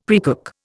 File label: "precook", Indian; Dictionary